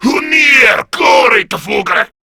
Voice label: Cover Me, Male; Warframe; Grineer Male B; Battle Barks